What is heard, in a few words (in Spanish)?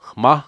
"gallo", Cucapá; Animales